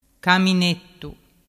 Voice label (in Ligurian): "caminettu", dialettu